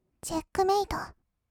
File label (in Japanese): "チェックメイト", kawaii voice; ASMR; 1200 kawaii voice!!; 音ゲー